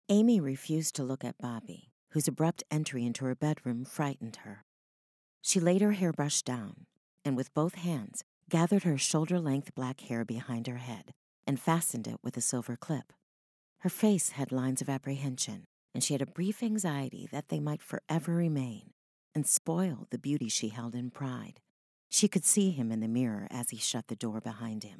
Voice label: narration storytelling